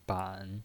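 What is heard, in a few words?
"ban", Mandarin Chinese, third tone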